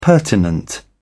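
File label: "pertinent", British English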